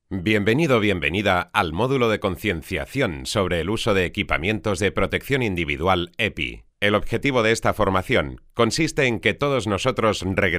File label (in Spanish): Formacion Online Spanish VO